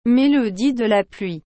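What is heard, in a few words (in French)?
"mélodie de la pluie", French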